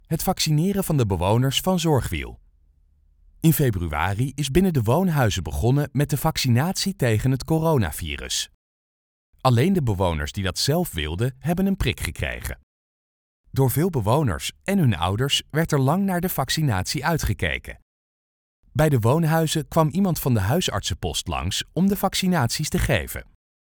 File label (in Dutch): Luisterversie Voiceover